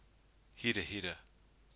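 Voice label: "hirahira", Maori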